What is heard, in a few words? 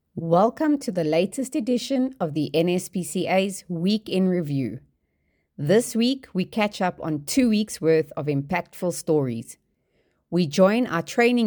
voice note; NSPCA’s Week in Review